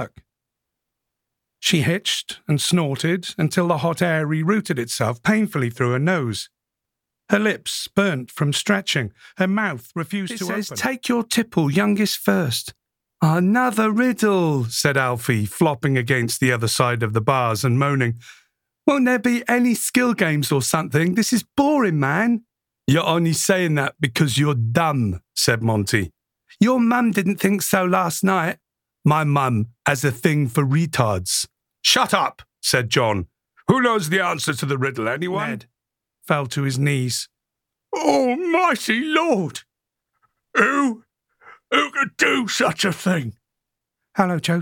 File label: Audiobook